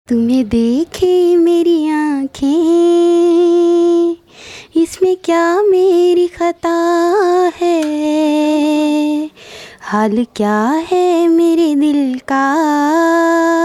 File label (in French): fillette